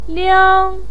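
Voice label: "liang", Mandarin Chinese, first tone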